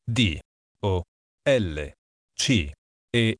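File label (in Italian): "dolce", italiano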